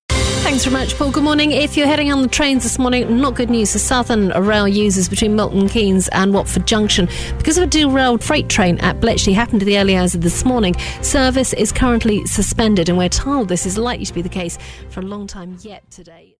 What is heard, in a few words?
travel bulletin